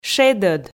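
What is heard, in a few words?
"шедӧд", Komi, female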